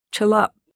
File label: you folks, Lushootseed